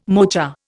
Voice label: "mocha", Indian

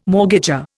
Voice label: "mortgager", Indian

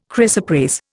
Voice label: "chrysoprase", Indian

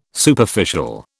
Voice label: "Superficial", British

